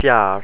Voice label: "fearr", ireland language pronounciation; Monophtongs